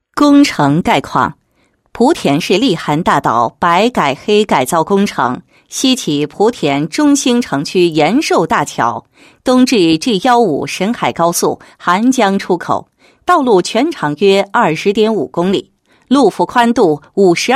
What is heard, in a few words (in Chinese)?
女 工程解说; 荔涵大道省优解说词